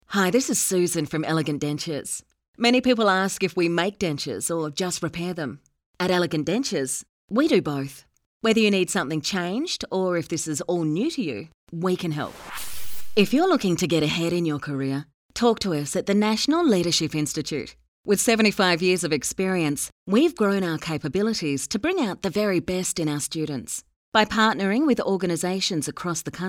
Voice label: Conversational